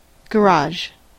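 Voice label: "garage", English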